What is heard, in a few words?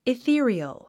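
"ethereal", English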